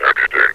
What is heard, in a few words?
"amputate", metropolice vo; Half Life Alyx